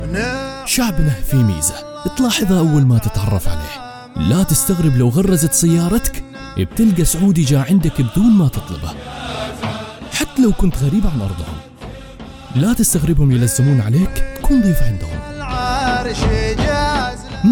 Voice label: Saudi Voice Over; demos